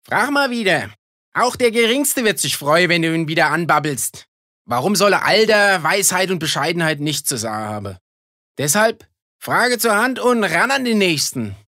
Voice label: Dialekt Hessisch

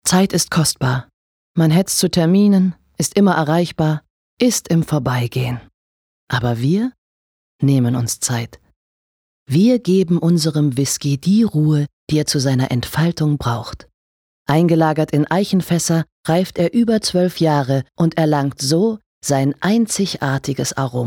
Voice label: Sanft

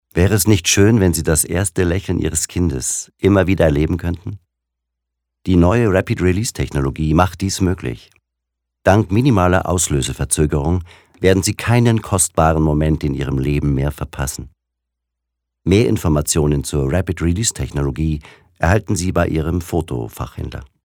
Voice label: freundlich

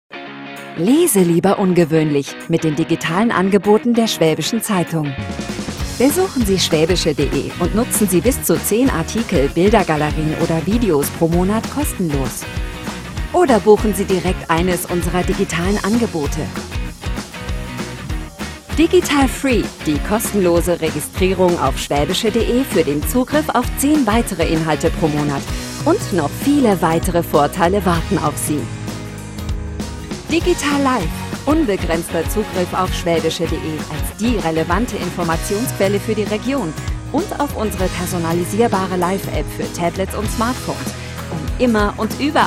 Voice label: Fremdtake Warm

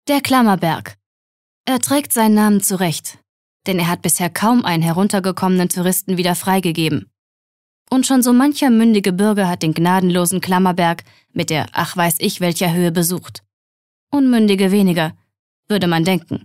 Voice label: Kommentar Neutral

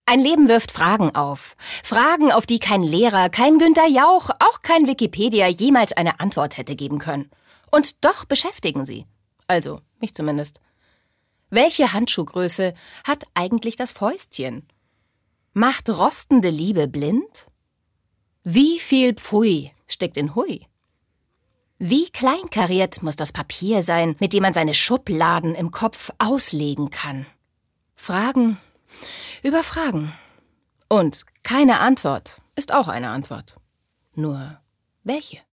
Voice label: Schauspiel Dynamisch

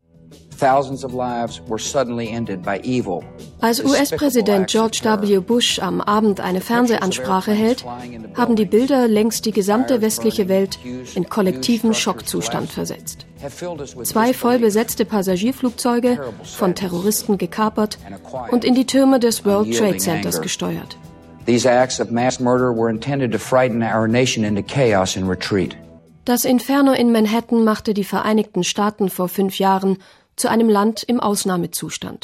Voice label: Kommentar Neutral

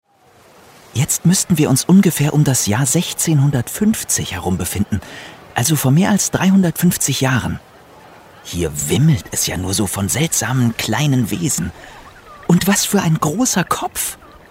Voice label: Audioguide Geheimnisvoll; Fremdtake

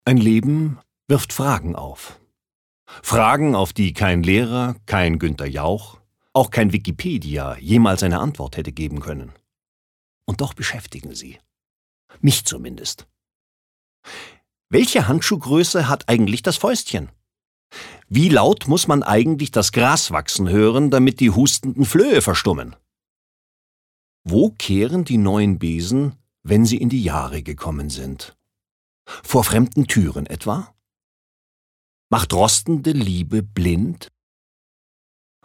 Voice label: Schauspiel, Witzig

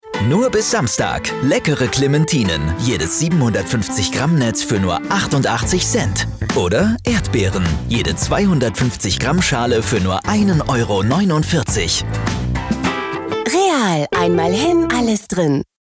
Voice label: Fremdtake Rau Real Freundlich

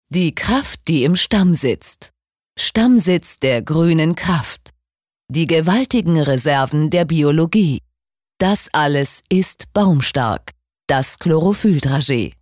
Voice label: Werbung, Kraftvoll

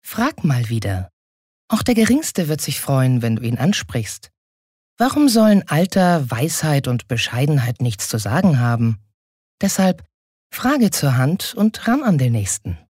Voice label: Werbung Sanft

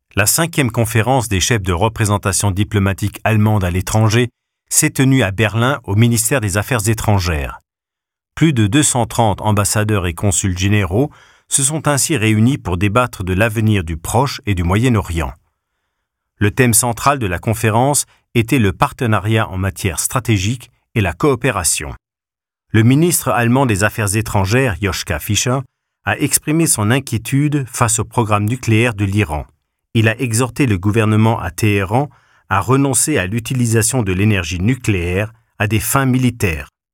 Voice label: Kommentar Neutral; FR

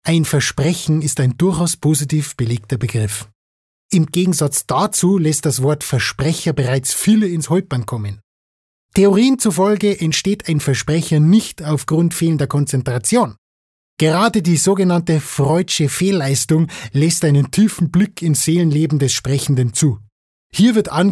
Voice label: Versprecher Bayerisch

